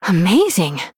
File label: Female Human Wow; Jaina